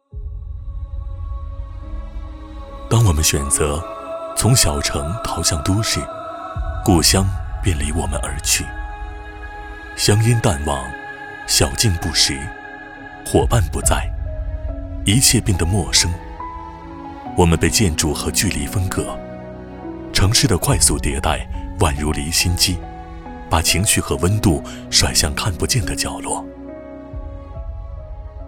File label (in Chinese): 大气深情